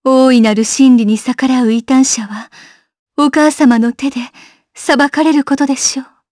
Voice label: Vox, jp; kingsraid